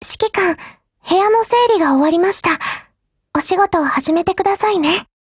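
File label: HELLO Japanese, Voice; GF Alarm; KP31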